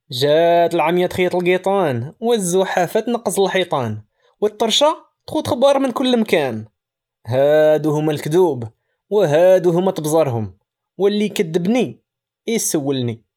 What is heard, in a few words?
male moroccan arabic narration